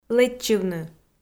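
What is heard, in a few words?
"лэччывны" Komi, female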